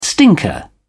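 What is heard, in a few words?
"stinker", English, British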